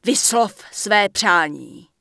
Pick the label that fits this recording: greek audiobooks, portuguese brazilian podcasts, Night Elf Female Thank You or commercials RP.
Night Elf Female Thank You